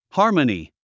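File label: "harmony", English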